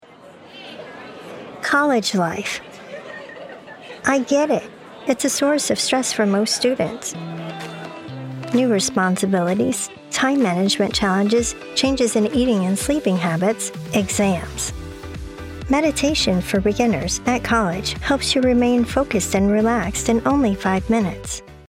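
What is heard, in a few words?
English, US, female, commercial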